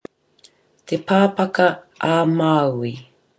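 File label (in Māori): "te papaka a māui", Māori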